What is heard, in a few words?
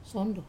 "sondo" spoon, Wamesa